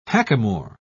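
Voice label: "hackamore", British English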